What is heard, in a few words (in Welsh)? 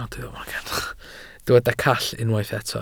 "call", Welsh